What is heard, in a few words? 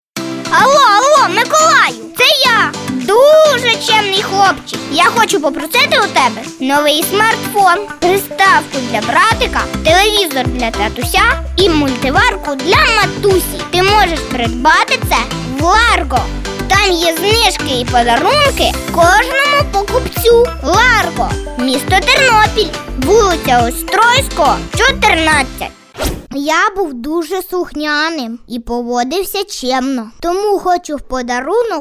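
language Ukrainian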